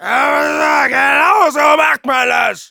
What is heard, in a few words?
battlecry; Demoman